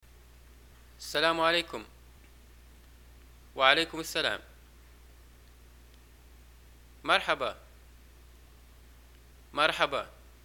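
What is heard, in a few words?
"greeting hi and response", Libyan Arabic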